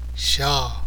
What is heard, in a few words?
"zha", tibet alphabet